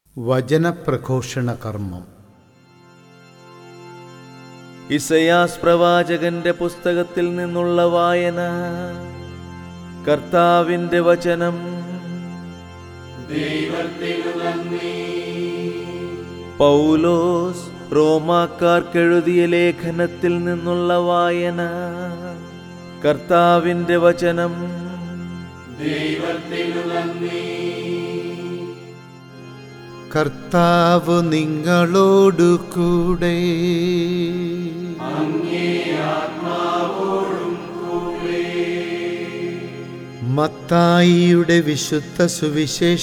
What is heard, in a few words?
Chant Readings; ordo cantus